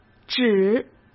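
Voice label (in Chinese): "止", Mandarin Chinese; chinese flashcards; hsk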